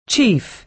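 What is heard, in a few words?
"chief", American English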